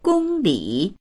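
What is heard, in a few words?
"gong1li3", Mandarin Chinese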